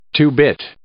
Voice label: "two-bit", English

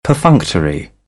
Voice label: "perfunctory", British English

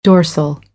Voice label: "dorsal", American; English; ɔːr - ɔː

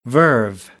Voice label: "verve", American, English; ɝː - ɜː

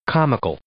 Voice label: "comical", English

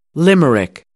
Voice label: "limerick", American; ɚ - əɹ

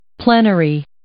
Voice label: "plenary", English

